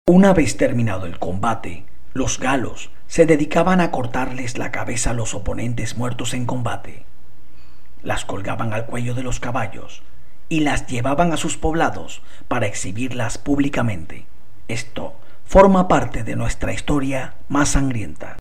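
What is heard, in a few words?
panamanian voiceover locutor panama; locutor de Panamá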